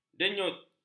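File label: "denyut", Malay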